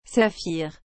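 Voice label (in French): "saphir", French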